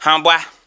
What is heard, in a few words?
"huh" boy; ooh ahh; heh huh